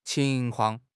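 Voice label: "qing2 kuang4", Mandarin Chinese; HSK; HSK4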